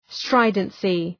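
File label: "stridency", English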